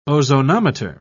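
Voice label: "ozonometer", British English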